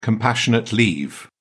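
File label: "compassionate leave", British English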